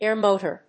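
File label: "AIR MOTOR", English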